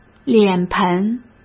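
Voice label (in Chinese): "脸盆", Mandarin Chinese; chinese-flashcards; hsk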